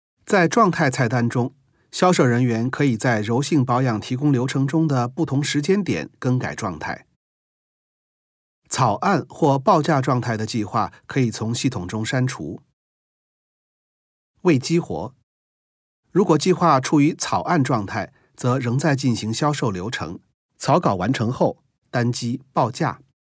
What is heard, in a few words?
Chinese Mandarin e-learning